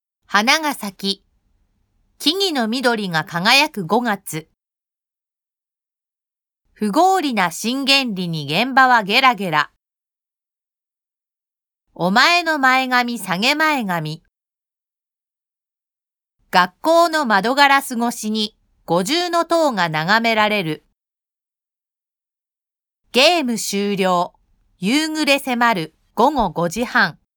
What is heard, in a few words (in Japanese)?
濁音・鼻濁音, japanese pronunciation